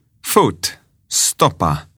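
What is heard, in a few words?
"foot", English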